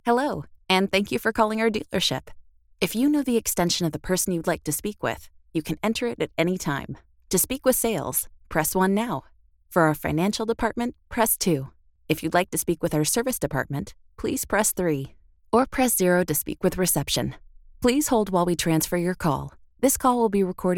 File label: IVR